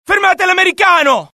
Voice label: alert, dialogue IT; mohaa; attack